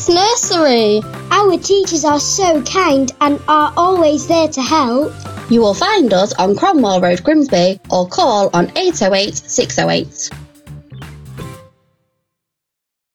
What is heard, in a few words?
Primary School Radio Advert; Great Coates Primary School